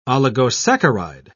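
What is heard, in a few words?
"oligosaccharide", British English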